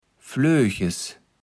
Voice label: "Floeches", Platt

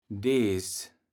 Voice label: "dees", kaevels platt

